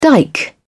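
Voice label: "dike", British English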